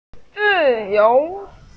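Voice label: "zi4 you2", Mandarin Chinese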